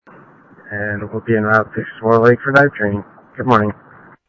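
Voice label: Sheriff Lake Patrol, scanner audio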